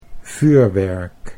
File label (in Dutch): "vuurwerk", Dutch